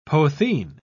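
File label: "potheen", British English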